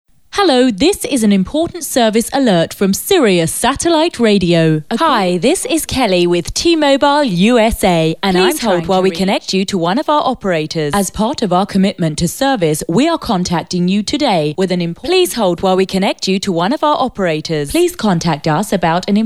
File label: telephony